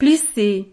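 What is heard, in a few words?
"plisse", French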